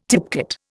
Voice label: "tipcat", Indian